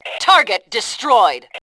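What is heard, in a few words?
target destroyed, voice Fem; Tribes2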